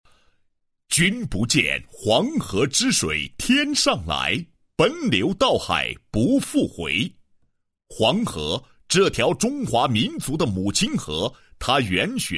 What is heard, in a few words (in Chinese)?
男 工作纪实 水利工程管理工作纪实; 黄河河务局